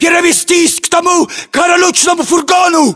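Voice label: order cover van brown, battlechatter, Russian; localized english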